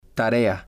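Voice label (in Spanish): "tarea", Spanish, male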